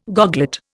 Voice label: "goglet", Indian